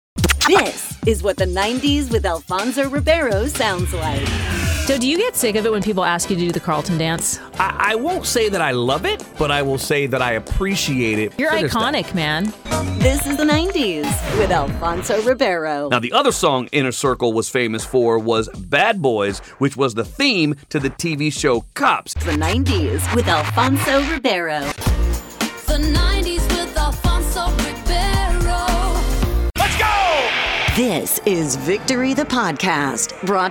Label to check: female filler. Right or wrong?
Wrong